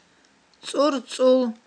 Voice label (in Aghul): "цIурцIул", aghul